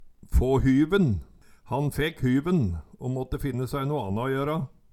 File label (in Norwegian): få hyven, Numedalsmål